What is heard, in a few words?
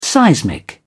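"seismic", British English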